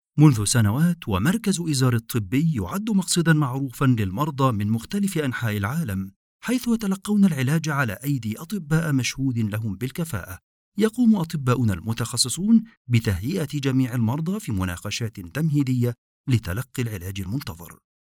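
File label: Arabic, male, narration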